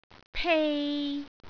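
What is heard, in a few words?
"pei3", Cantonese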